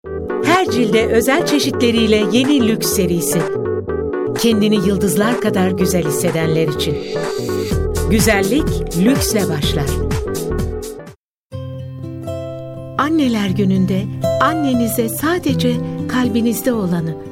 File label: turkish f commercial